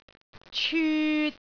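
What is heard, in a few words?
"cyut3", Cantonese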